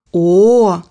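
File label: letter "O", Russian; bukvar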